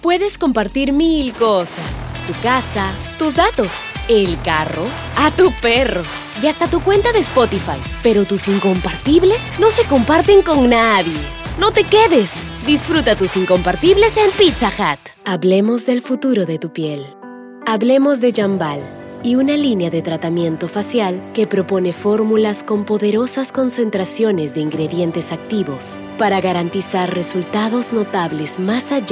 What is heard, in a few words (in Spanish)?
DEMO LOCUCION